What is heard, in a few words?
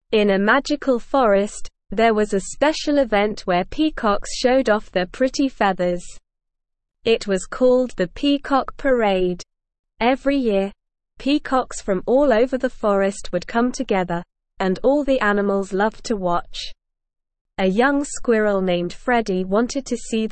ESL Short Stories for Kids Lower Intermediate SLOW Reading; The Peacock Parade